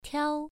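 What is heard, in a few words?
"tiao" Mandarin Chinese, first tone, pronunciation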